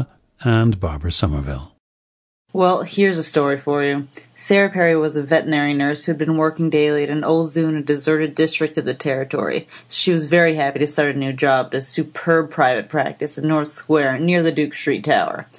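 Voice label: new york, International Dialects of English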